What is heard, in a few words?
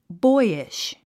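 "boyish", English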